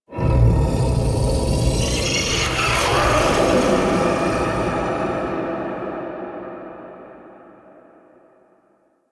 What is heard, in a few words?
Whispers Large; Ambience